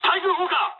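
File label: damaged aa mood high, speech japanese; War Thunder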